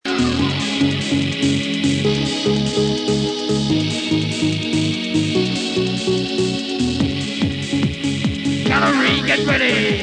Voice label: MC ing